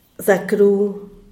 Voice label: "zacru", Diaz Ordaz; bonito